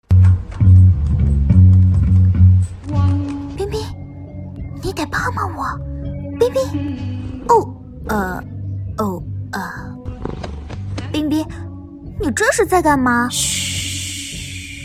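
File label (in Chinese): 模仿猫狗; 爱宠大机密; 模仿冰冰猫啾啾狗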